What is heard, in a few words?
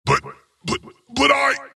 wraith death; dota; skeleton king